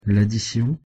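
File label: pronunciation "laddition", French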